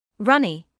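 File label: "runny" English, British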